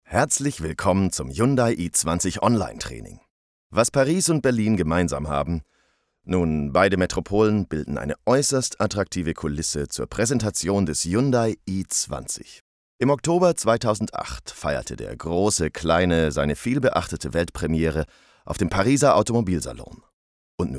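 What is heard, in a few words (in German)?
elearning, deutsch männer; sprecher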